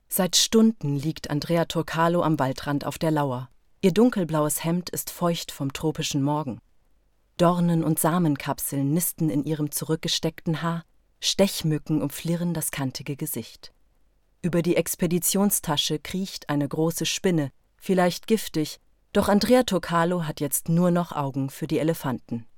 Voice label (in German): Reportage Waldelefanten